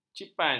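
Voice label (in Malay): "cipan", Malay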